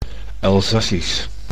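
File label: "Elzassisch", Dutch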